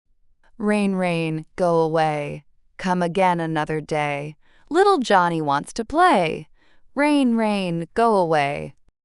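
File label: female US, classic rhymes; Rain Rain Go Away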